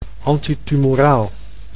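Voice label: "antitumoraal", Dutch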